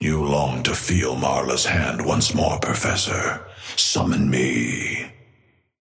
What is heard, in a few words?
male ally start; Patron; dynamo